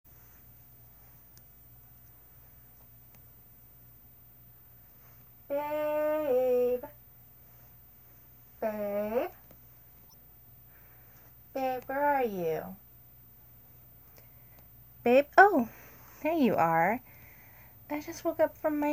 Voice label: F4M, Teasing, Playful, A bit cruel, whisperi; Just let me play!; No Fap November; GFE